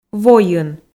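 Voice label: "войын", Komi, female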